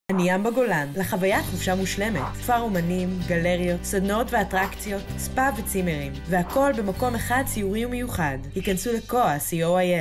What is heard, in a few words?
Hebrew promos